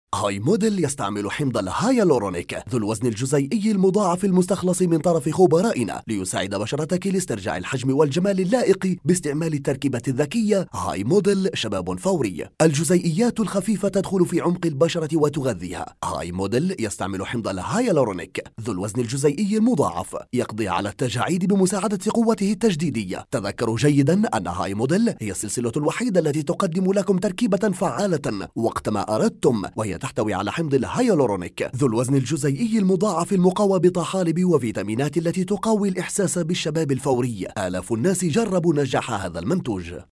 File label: arabic male